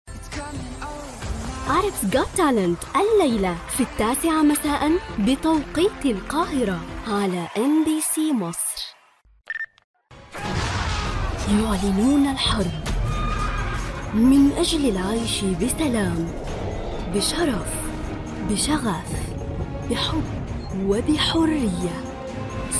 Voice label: Promos

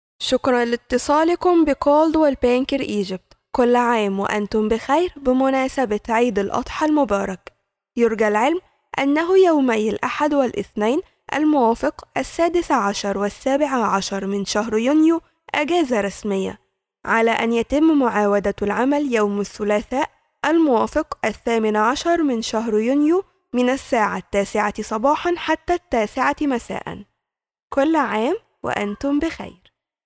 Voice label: IVR; Eid coldwell; الرد الالي

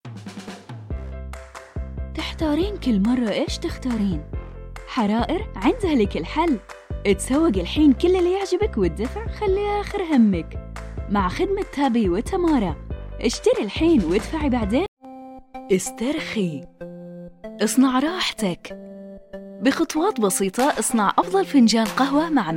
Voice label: Sample saudi

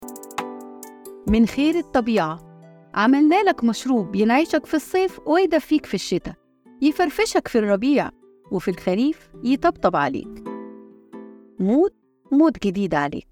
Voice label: mood ads

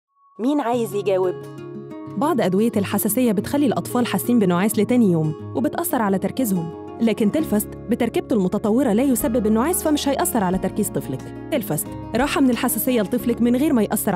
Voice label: Commercial; Telfast2

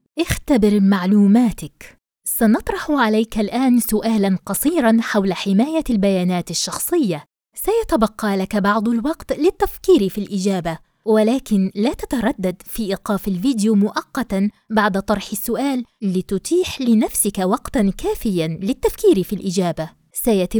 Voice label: e-learning

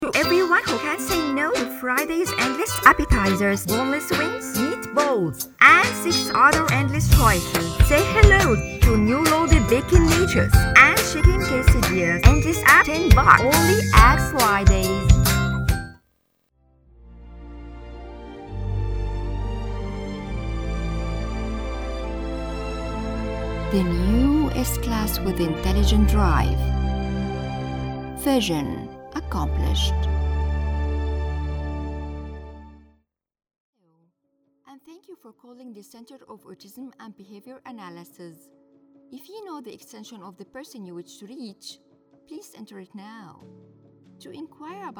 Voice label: English

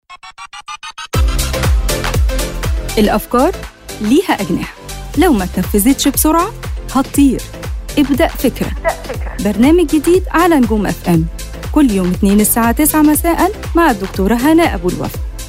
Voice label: promo

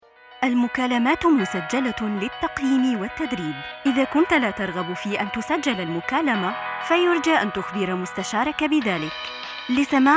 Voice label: IVR SAMPLE

